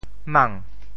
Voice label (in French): "mang", syllabes